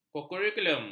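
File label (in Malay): "kokurikulum", Malay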